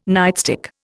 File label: "nightstick", Indian